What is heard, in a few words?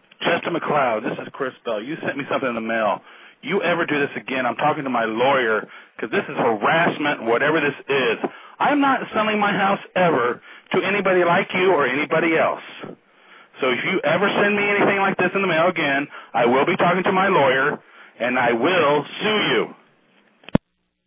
funny caller